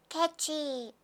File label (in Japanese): "kechi", reaction, voice